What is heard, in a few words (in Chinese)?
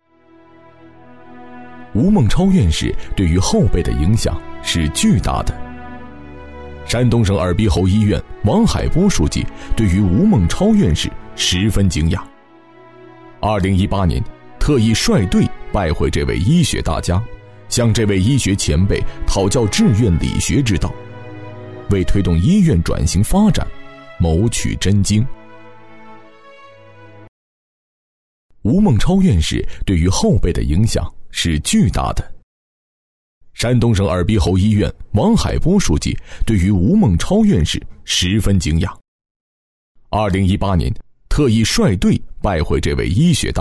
国语男 人物传记 客观自然; 吴孟超院士传奇